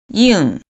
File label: "ying4", pinyin